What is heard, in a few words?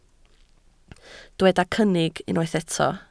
"cynnig", Welsh